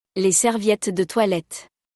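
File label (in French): "Les serviettes de toilette", French; vocabulaire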